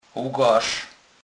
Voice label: "lgarz", kurpiow

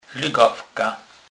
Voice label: "lygawka", kurpiow

